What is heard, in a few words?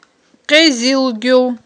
"къизилгюл", Aghul